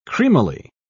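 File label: "creamily", English, British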